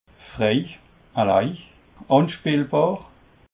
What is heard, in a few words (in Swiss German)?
"frei", alsace